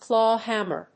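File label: "claw hammer", English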